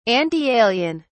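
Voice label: "antialien", English, British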